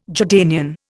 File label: "Jordanian", Indian